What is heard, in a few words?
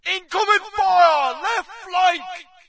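British taking fire left; player; source engine; dod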